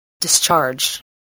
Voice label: "discharge", english spelling